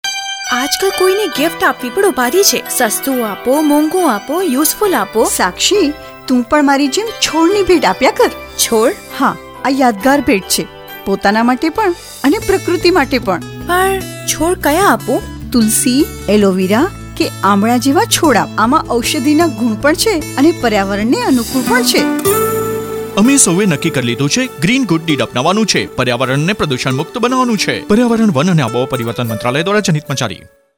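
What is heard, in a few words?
Gift sapling Gujarati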